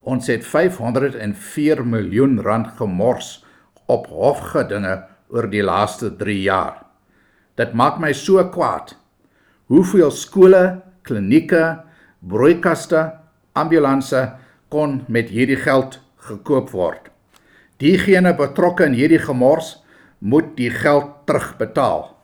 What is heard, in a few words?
Voice clip Afrikaans